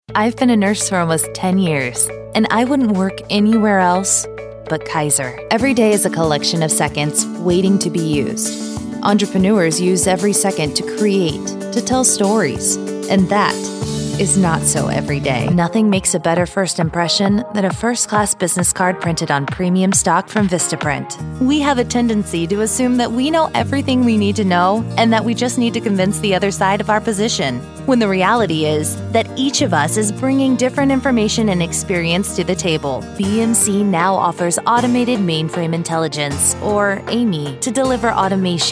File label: 30s Female Narration